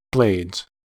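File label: "blades", English, American accent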